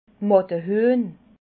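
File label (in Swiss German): "mattahuehn", Alsatian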